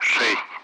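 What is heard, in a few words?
Speech Sound Russian Common Allied Flight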